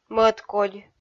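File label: "мӧдкодь", Komi-Zyrian, female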